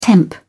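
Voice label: "temp", British English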